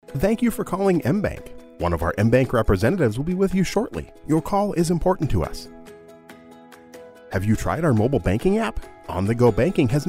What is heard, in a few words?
IVR Male; Phone Hold/IVR